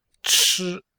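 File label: "chi1", Chinese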